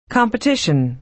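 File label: "competition" American English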